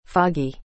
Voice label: "foggy", English